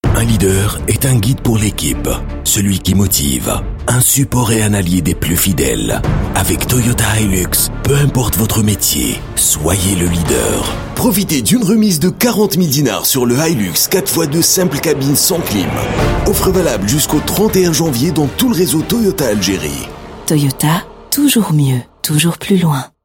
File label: French commercial